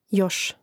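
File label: "još", Croatian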